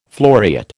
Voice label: "floriate", American

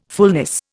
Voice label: "fullness", Indian

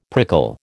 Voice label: "prickle", American

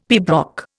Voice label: "pibroch", Indian; Dictionary

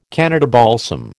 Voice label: "Canada balsam", American

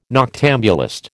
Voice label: "noctambulist", American

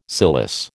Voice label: "cilice", American

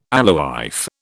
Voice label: "alewife", British

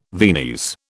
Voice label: "Viennese", British

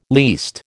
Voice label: "least", American

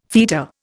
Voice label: "feta", Indian

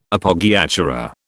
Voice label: "Appoggiatura", British

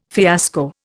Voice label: "fiasco", Indian

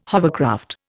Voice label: "hovercraft", Indian

